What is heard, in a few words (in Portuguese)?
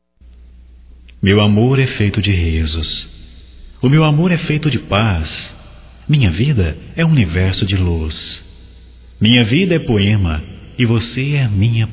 ROMANTICA GERAL, Românticas masc